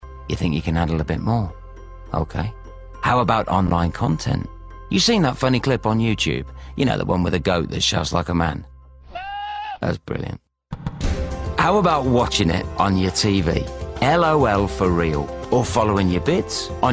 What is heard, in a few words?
COMMERCIAL Smooth Cool Relaxed Informative; Virgin Media Tivo